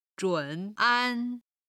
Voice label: "zhun3an1", chinese